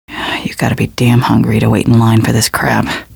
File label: Cit foodline female